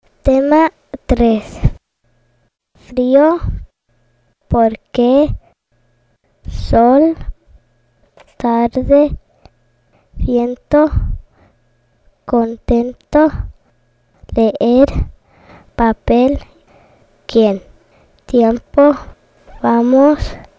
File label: High Frequency Spanish